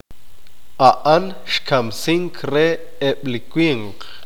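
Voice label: "él mató a los hombres", Q'eqchi'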